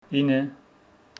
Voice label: Homshetsi nine